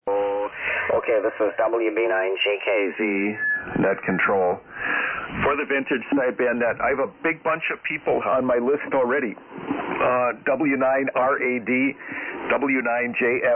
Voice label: SSB Net Check Ins